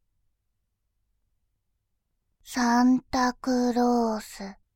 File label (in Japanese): サンタクロース, バイノーラル左, ASMR